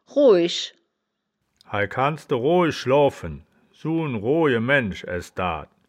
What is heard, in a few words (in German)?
"rohisch", wittlich